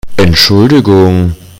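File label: "Sorry", German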